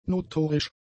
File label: "notorisch", German; adjectives